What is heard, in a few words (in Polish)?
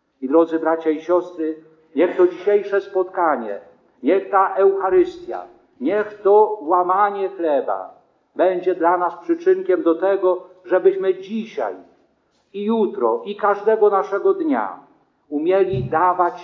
Kazanie; Wielki Czwartek; pamiatka ustanowienia eucharystii i kaplanstwa